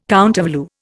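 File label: "countervalue", Indian English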